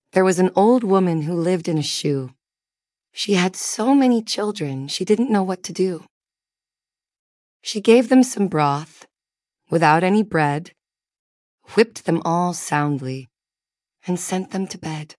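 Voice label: female US; There Was an Old Woman Who Lived in a Shoe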